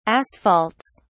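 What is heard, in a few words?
"asphalts", English, British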